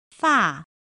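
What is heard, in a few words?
"fà", Chinese; pinyin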